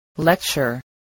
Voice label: "lecture", English